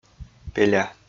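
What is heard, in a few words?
"пеля", Komi, male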